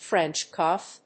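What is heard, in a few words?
"French cuff", English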